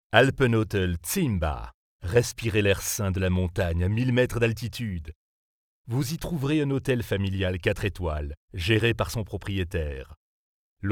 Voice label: Voix grave souriante Pub; Alpen Hotel Zimba

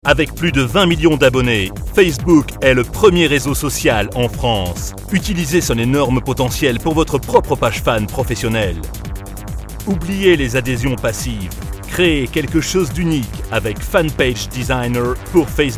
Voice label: voix grave dynamique pub internet; Fanpage Designer

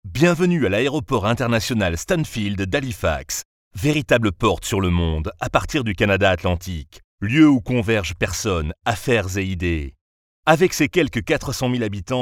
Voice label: voix grave souriante Corporate; Halifax

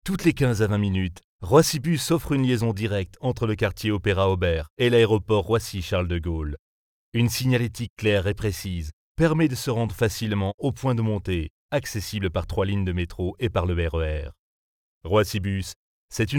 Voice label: Voix medium souriante; RATP-Clip Roissybus

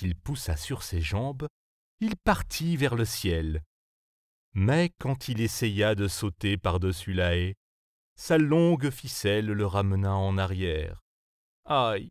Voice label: Voix medium souriante Conte chien gracieux

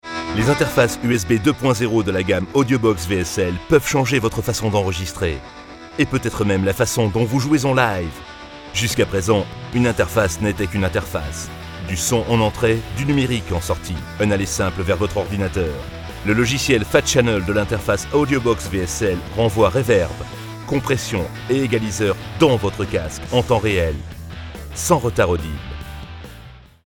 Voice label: voix medium dynamique produit; Audio Box VSL

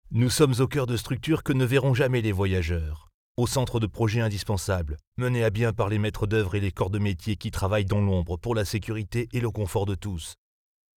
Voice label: Voix grave cassee Com Interne; RATP-Auteuil

